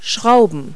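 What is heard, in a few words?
"screws", English word; Multi-Lingual Talking Picture Dictionary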